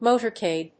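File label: "motorcade", English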